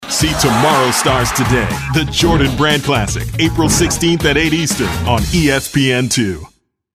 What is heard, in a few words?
us tv promo